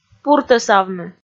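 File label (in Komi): "пуртӧсавны", Komi, female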